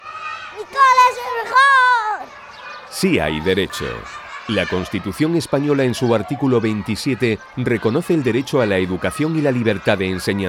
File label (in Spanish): anuncio radio; campana acierta cole 2017